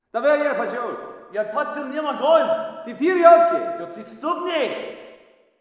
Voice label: walla rus mountain conv; modern warfare 2; amb walla